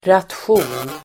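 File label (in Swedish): "ration", Swedish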